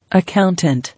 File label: "Accountant", English